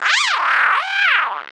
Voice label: irritated